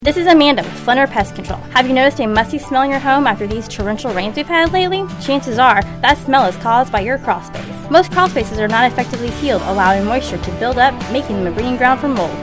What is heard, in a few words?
female; Kiefer Landscaping; Crawlspace